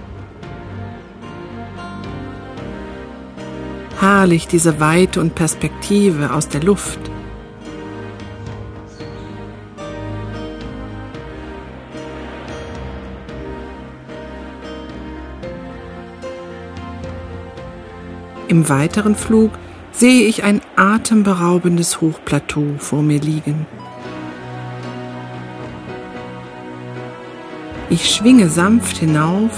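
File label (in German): fliegen grand canyon weiblich; Orte der Entspannung; Phantasiereisen; Tiefenentspannung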